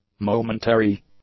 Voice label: "momentary", English; adjectives